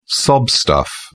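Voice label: "sob stuff", British English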